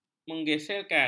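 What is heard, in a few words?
"menggeselkan", Malay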